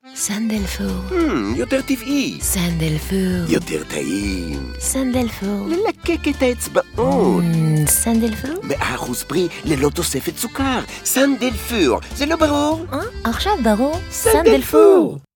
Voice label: Radio music; St Dalfour